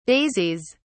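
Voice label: "daisies", British English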